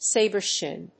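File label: "saber shin", English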